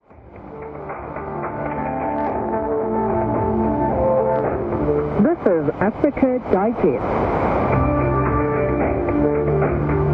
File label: 15235 kHz english; Channel Africa; NRD 535 DG; Fribourg